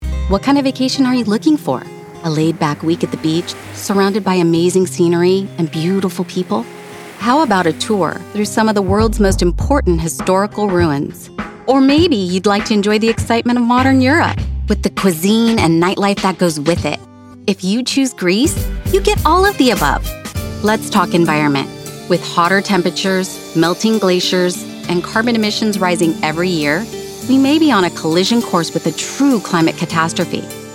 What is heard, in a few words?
Narration